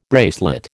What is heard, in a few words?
"bracelet", American